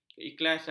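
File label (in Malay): "keikhlasan", Malay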